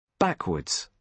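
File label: "backwards", British English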